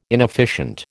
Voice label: "inefficient", American